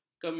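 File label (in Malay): "kemis", Malay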